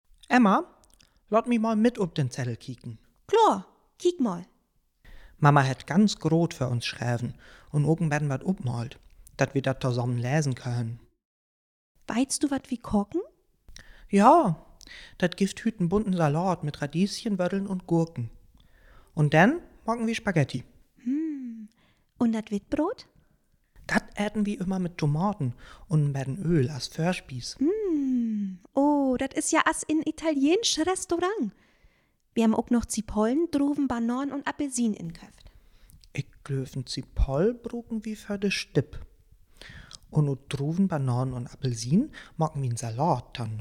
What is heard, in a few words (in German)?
Dialog, niederdeutsch